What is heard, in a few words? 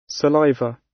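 "saliva" British; Flora and fauna, agriculture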